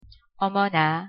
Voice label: "어머나", Korean; pronunciation

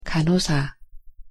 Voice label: "간호사", Korean

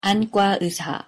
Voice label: pronunciation ko 안과의사

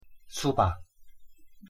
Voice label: "수박", Korean; pronunciation

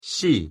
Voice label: pronunciation ko 씨

